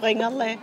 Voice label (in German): Dialekte